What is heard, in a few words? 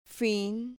"fin", Spanish syllable